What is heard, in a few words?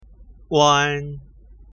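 "uan", pinyin; ภาษาจีนกลาง; สำเนียงปักกิ่ง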